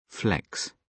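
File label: "flex", British English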